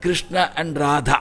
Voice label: MAN VX, Indian Traditions